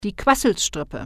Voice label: motormouth

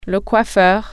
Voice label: hair dresser, french

